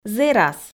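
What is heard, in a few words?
"зэрас", Komi, female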